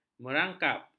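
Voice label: "merangkap", Malay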